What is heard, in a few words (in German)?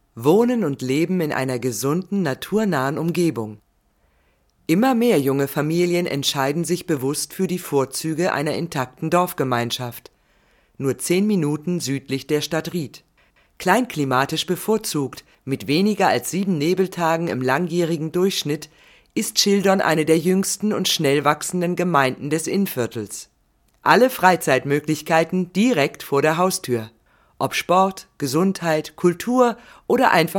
Demo Imagefilm Schauspielerin; Schildorn